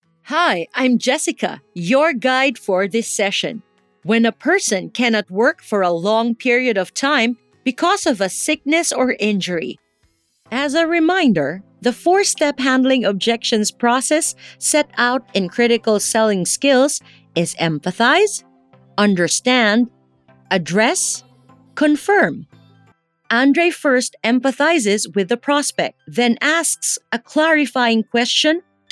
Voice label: english e-learning